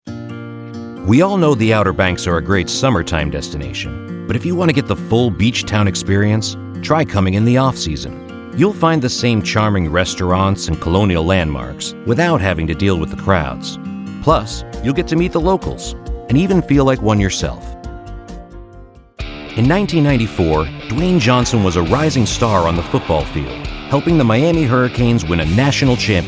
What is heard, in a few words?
Narration